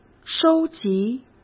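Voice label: "收集", Mandarin Chinese; hsk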